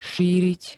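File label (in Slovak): "šíriť", Slovak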